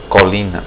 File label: hill, portuguese